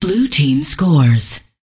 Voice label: Voices Announcer Status; unreal development kit

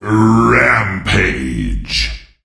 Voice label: Voices Announcer Reward

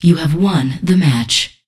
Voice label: Voices Announcer Status

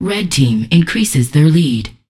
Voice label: Voices Announcer Status

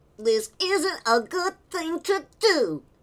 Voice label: Male Kid Beggings

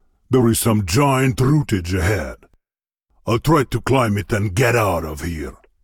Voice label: Voice Dialog; we are the dwarves gamerip 2016

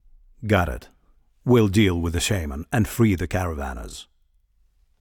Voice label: Voice Dialog; We Are the Dwarves

